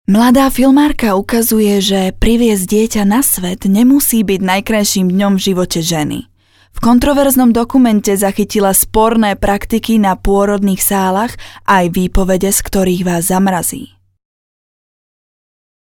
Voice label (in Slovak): Ženské hlasy, Reklamný hlas, voice over